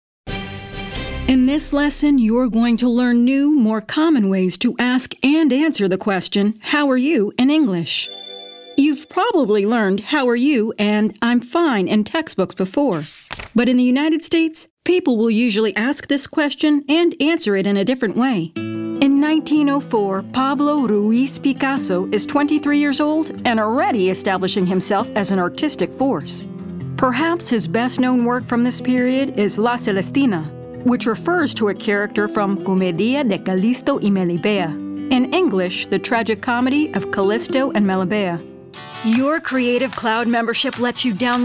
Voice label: Narration